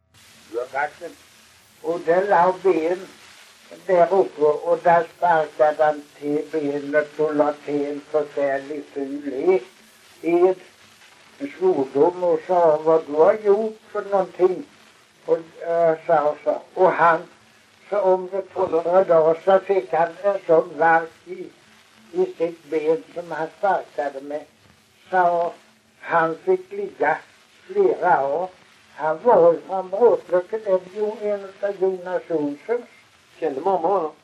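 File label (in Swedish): Kristianopel, Swedish dialect; svenska sagor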